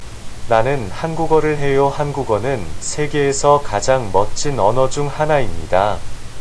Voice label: korean